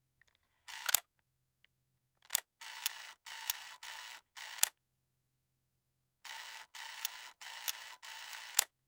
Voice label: man with a deep voice; human voice